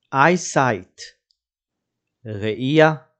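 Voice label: "eyesight" English, "ראייה" Hebrew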